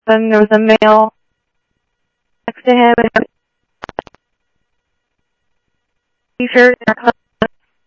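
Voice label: Sheriff West County, scanner audio trunk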